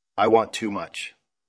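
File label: Voice Acting, Spanish, male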